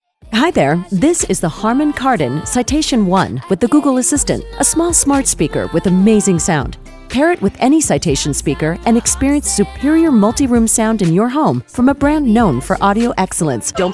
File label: Commercial Voiceover